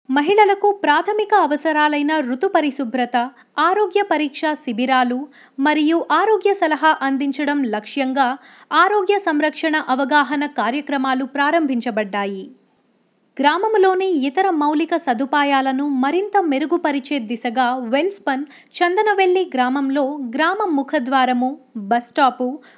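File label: Telugu female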